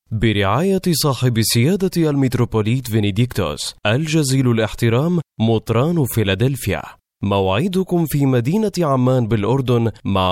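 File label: arabic tunisian male narration; voice demo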